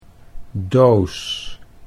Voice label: "doos", Dutch